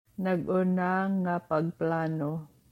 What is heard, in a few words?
Cebuano